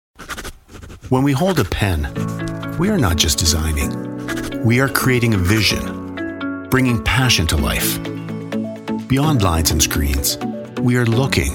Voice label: Voiceovers Commercial